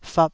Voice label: "fap2", taiwanese syllables